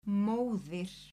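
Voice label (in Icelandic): "móðir", Icelandic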